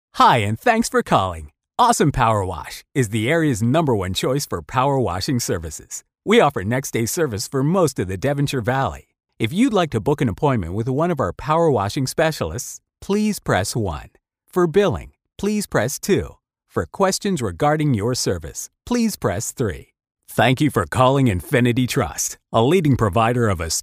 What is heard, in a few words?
Voicemail Onhold